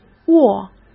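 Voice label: "握" Mandarin Chinese; chinese flashcards; hsk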